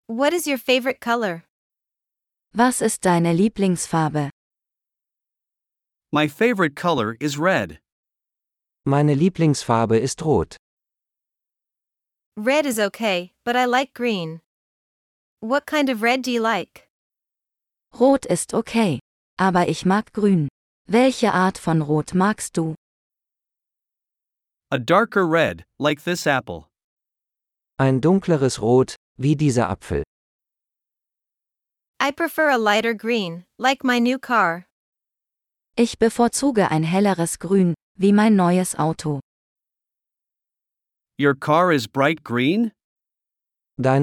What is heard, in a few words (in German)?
Dialog mit Uebersetzungen; Die Farben